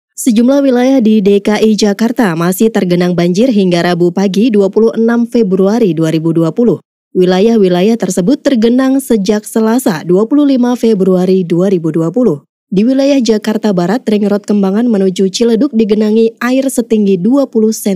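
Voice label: formal Indonesian voice over, female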